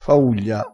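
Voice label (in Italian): "fauglia", vocabolario dialettale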